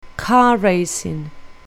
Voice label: "car racing", English; sports

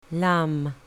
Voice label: "lamb", English; farm animals

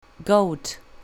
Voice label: "goat", English; farm animals

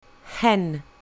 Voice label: "hen", English; farm animals

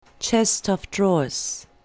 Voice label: "chest of drawers", English; furniture

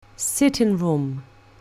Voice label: "sitting room", English

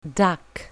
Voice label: "duck", English; farm animals

